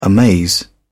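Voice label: "amaze", English, British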